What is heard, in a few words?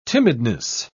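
"timidness", British English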